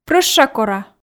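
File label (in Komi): прӧща кора, Komi, female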